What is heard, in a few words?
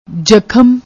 "jakham", Punjabi